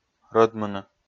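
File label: "рӧдмыны", Komi, male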